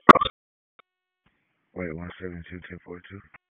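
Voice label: Sheriff's Office Dispatch; p25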